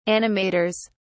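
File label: "animators", British English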